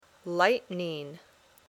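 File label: "lightning", English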